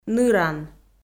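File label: "ныран", Komi, female; audio dict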